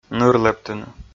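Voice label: "ныр лэптыны", Komi, male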